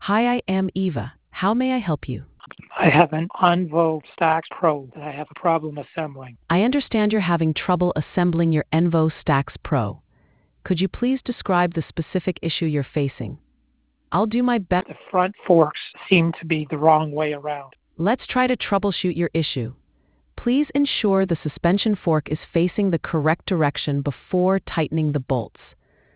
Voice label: conversation